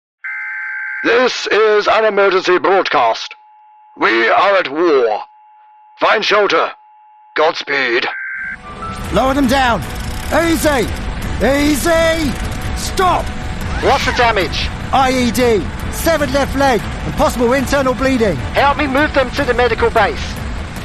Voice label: english british video games